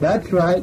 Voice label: that's right male; Voice

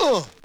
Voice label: "uh" scratch

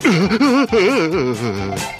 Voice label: "uhhh uhhh huhhh", Voice; ooh ahh; uh um

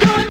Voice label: "good" chic, Voice; expletives

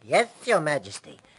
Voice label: yes your majesty, cartoon

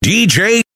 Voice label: dee jay announcer; Voice; introductions

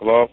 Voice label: "hello", irate black man

